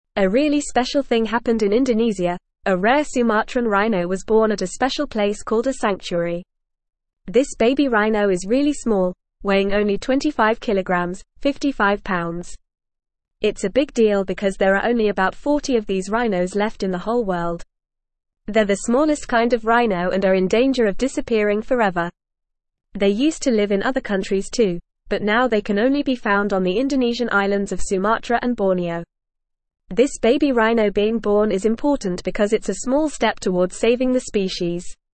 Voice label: English Newsroom Upper Intermediate FAST Reading; Rare Sumatran Rhino Born in Indonesia Boosting Cons